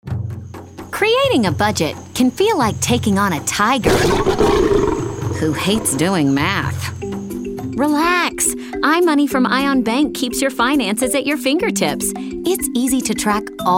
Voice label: Radio; Ion Bank; iMoney; radio spots